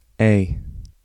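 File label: English alphabet a; A [eɪ]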